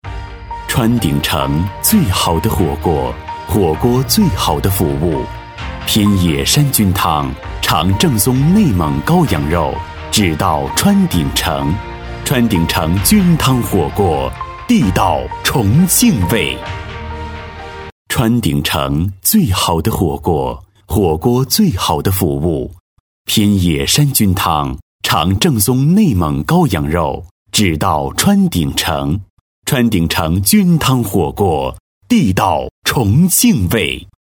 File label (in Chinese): 男 大气沉稳; 川鼎诚